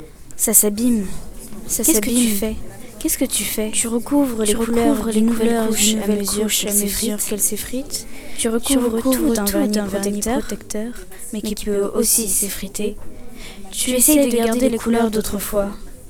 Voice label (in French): Lecture